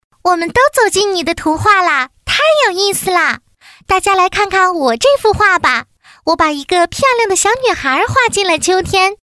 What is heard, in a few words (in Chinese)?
女 童声 女童